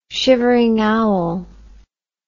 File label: "shivering owl", English